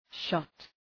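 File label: "shot" English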